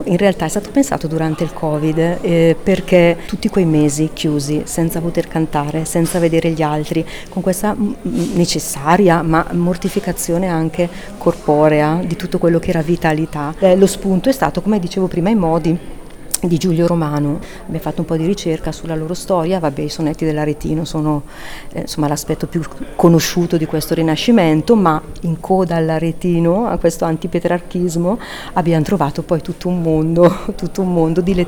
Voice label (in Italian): intervista breve; ensemble ars ludica